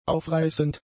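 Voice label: "aufreißend", German; adjectives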